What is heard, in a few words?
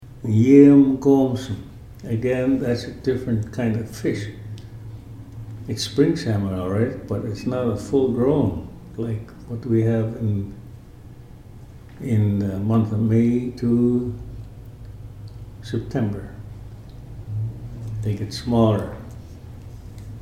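yeem goomsm, Ts’msyen; seafood chowder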